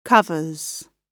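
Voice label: "covers", British English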